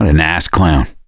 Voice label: reaction negative, voice; gambler

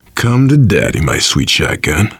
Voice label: take auto shotgun, voice, biker; Left 4 Dead 2; survivor

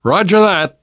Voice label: yes, namvet, survivor voice; Left 4 Dead 2; left4dead2 dlc3

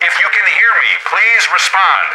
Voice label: radio initial broadcast; Left 4 Dead 2; left4dead2 dlc3; boatman; npc

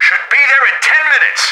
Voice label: radio in transit; Left 4 Dead 2; left4dead2 dlc3; boatman; npc

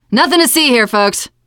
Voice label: area clear, teen girl; player; survivor; voice

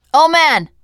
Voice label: name, teen girl; bill

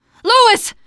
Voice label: hunter pounced, teen girl

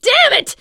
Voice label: horde attack, teen girl; player; survivor; voice

